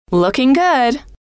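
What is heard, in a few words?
looking good, female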